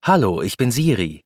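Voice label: German, Germany; iOS Sounds; martin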